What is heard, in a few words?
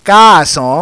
kaasan, Yoruba